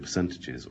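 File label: "percentages", Standard Southern British English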